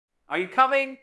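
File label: Voice Acting, Spanish, male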